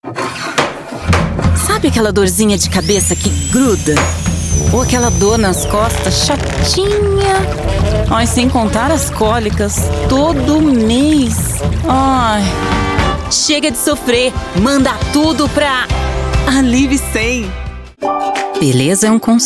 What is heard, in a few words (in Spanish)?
Comercial; Auroral